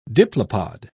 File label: "diplopod", pronounce